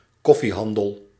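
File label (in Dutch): "koffiehandel", Dutch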